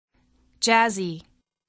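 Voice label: "jazzy", English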